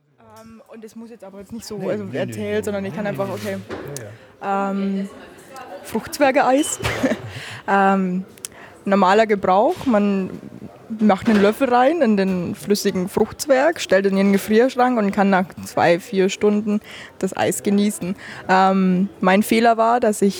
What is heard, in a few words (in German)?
stimme w